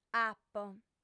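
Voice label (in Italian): "apo", lingua sarda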